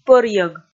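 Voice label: "пӧрйӧг", Komi, female; kpv audio dict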